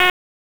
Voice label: Police Northwest District Tac 1, P25; Raleigh